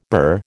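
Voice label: "burr", American English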